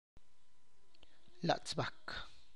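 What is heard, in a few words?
Tlacochahuaya Zapotec; Tlacolula Valley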